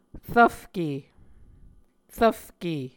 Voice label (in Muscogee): "rvfke", muscogee